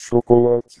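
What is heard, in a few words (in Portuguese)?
chocolate 120Hz garganta Grande, deformação de sinais de fala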